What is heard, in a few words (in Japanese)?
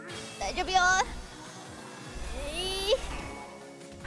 daijobu yo plus noise; IRyS JP